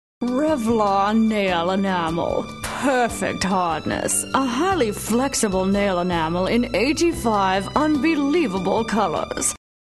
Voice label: Commercial